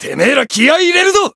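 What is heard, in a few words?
Voice variable chant, Japanese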